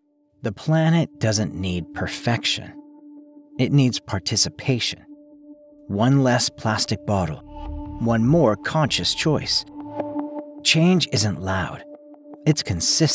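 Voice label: Environmental PSA with music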